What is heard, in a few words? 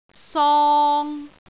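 "song3", Cantonese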